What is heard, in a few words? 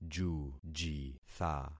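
"jujitha", concatenated syllables; Affixing experiment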